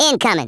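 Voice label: "incoming" spoken, Redneck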